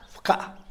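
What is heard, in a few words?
"pkaa", Tlacochahuaya Zapotec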